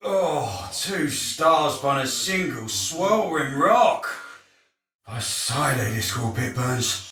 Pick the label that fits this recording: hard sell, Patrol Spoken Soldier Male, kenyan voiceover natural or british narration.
Patrol Spoken Soldier Male